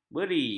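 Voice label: "beri", Malay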